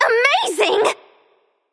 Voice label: VOX Emote; Smite; Cute Cultivator Persephone; VGS